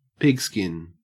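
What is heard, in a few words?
"pigskin", Australian English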